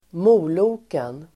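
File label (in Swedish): "moloken", Swedish; moloken adjektiv, downhearted; Uttal: [²m'o:lo:ken]; dyster, nedstämd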